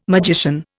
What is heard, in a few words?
"magician", Indian